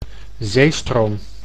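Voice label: "zeestroom", Dutch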